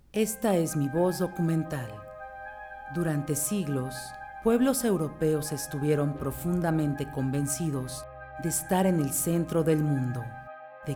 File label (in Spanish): DOCUMENTAL, locutora